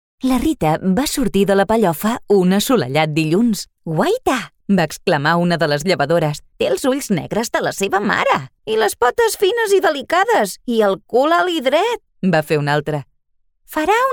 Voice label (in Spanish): interpretación catalán